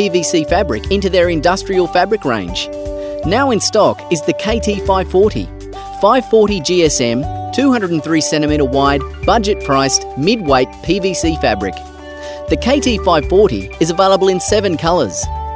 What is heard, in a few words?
Phone on Hold